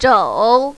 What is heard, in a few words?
"zhou3", mandarin, female